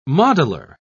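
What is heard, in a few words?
"modeler", British English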